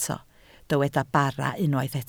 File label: "bara", Welsh